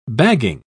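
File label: "bagging", British English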